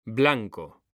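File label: "blanco", Spanish, male; mekanta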